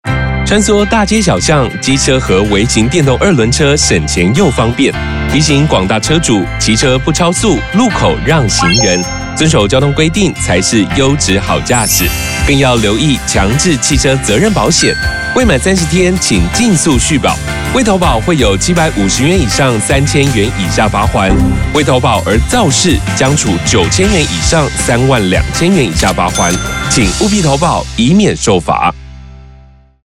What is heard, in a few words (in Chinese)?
國語; 方便篇; 11月30日起，微型電動二輪車新車須投保強制險才能上路，現行已領有合格標章行駛上路的微型電動二輪車，應該在施行後2年內投保強制險。